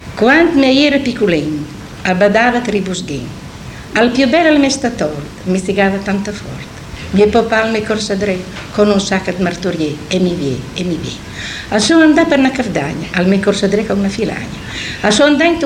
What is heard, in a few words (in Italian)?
filastrocca, Ferrara; quant ch mi a i era piculin